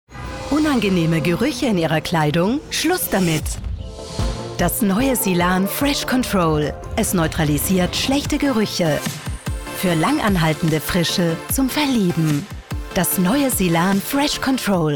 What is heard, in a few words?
TV spot; Silan FreshControl